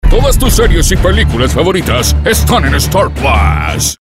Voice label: Commercial - Spanish Latin